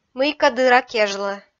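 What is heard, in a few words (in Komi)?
"мыйкӧ дыра кежлӧ", Komi, female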